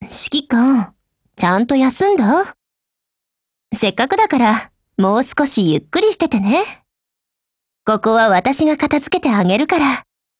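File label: dialogue wedding Japanese; EVO3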